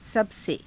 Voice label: "sabase", hindi